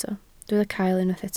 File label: "cael", Welsh